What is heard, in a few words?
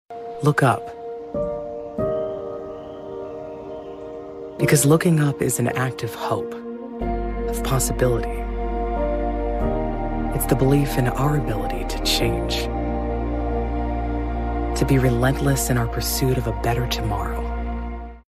inspiring hopeful emotional anthem confident powerful kindness authentic vulnerable